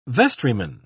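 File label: "vestryman", British English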